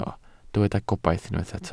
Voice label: "gobaith", Welsh